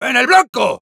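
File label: Sniper good job, Spanish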